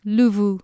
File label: "lufu", Anglo Saxon